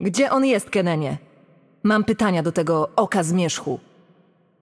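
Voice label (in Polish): Game Outro, Polish; pl PL; bloom minigame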